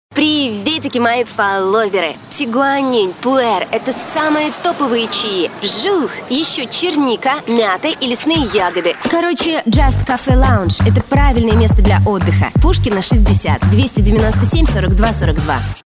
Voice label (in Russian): igrovoi blogersha